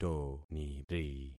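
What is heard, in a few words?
"toniri", concatenated syllables; Affixing experiment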